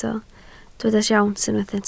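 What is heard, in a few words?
"siawns" Welsh, spoken word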